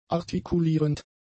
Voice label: "artikulierend", German; adjectives